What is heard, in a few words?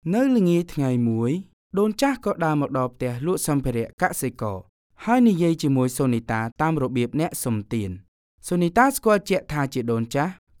Book Read; Cambodia